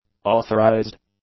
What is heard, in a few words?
"authorized", English; adjectives